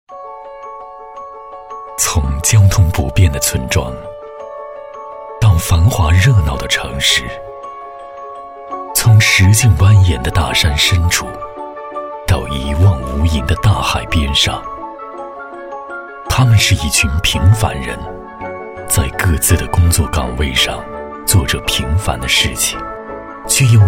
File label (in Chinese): 宣传片 企业 企业形象 温情, 男